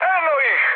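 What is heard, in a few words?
Serbian enemy detected mood high; speech; War Thunder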